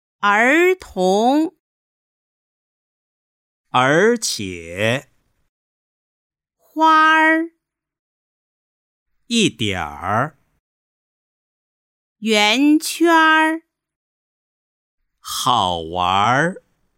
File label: er hua